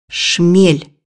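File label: "bumblebee", Russian; bukvar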